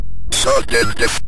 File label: "sudden death", Synth Voice; Kenney's Sound Pack; Synth Voice 1